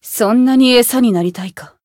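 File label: Voice encount, Japanese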